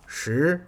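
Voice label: "Shi", ten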